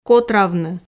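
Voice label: "котравны", Komi, female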